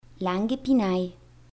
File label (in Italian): Langhe Pinay; Attilio Ghisolfi